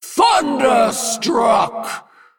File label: Female Dragon Attack